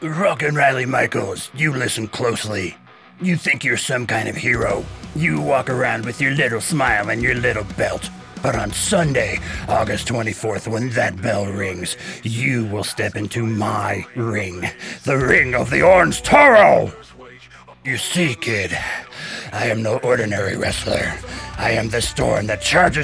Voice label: Pro Wrestling Trash Talk; Spooky Audiobook Narration

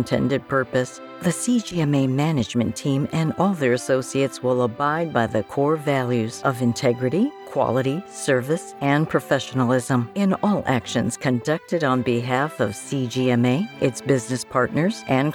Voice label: with music; CGMA, LLC

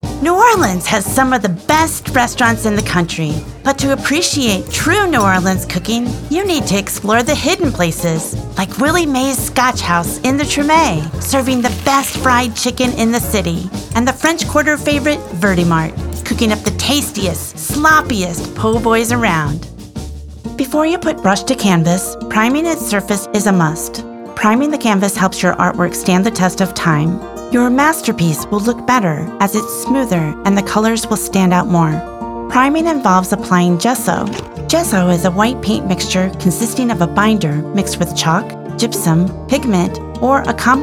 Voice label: Narration

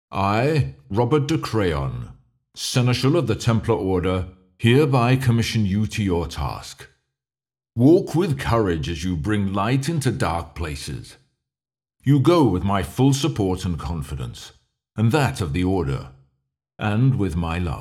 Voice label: Reverb

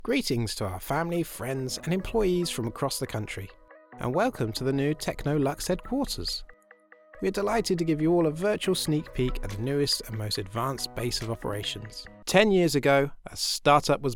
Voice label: corporate; freelance voice over artist

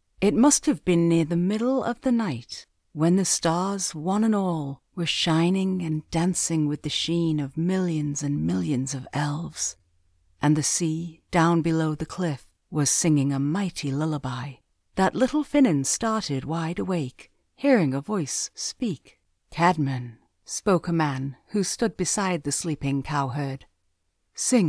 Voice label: Audiobook Sample; warmth, sincerity

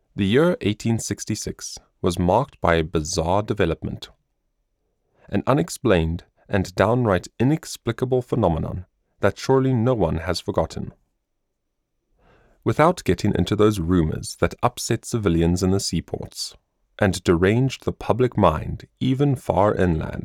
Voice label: Audiobook, Male Audiobook Narrator; male voice actor and audiobook narrator based in KwaZulu-Natal, South Afri; Twenty Thousand Leagues Under the Sea

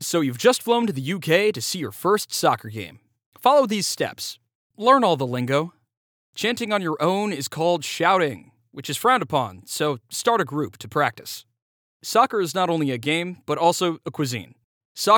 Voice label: Soccer ad Spot

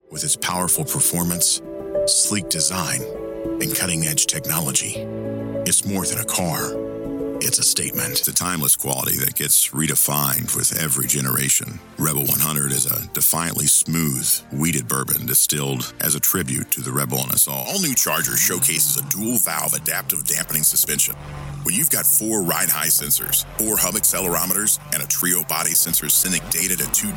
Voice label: Commercial

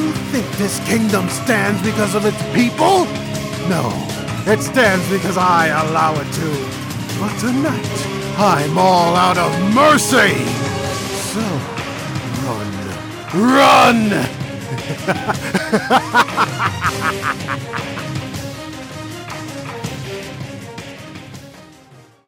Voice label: Genius Villain Losing It

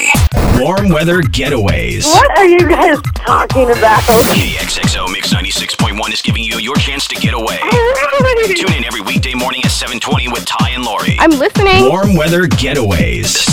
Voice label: Radio Imaging

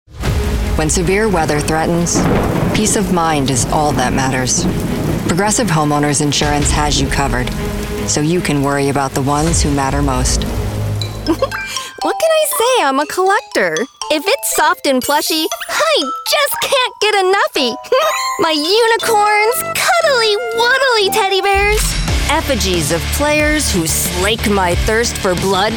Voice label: Commercial

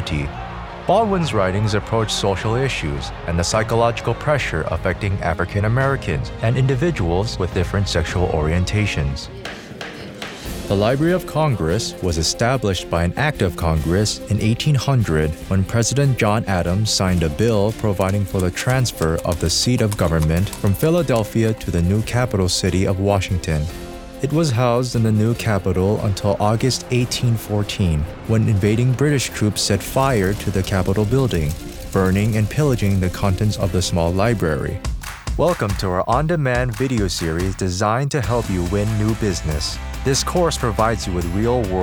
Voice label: Narration, Professional Male Voice Over; Los Angeles native with a deep, warm, and trustworthy voice

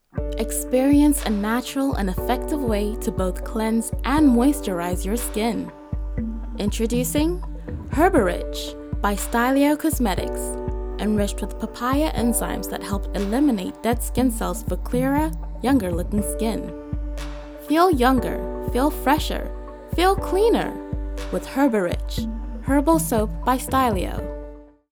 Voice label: ad w music; HerbaRich

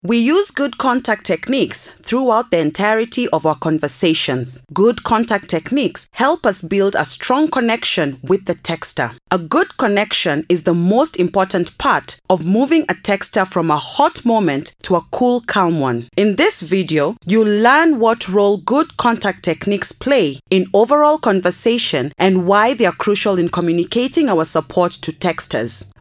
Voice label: e learning; Good technics

